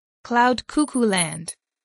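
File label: "cloud-cuckoo-land", English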